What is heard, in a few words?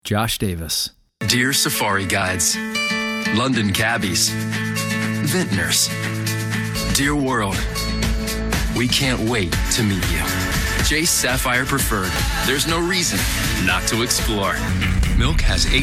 commercial, men